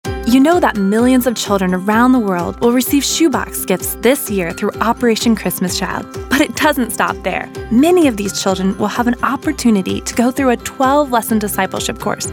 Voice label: female voice; The Greatest Journey; PSA